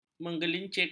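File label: "menggelincirkan", Malay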